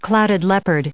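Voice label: "clouded", US English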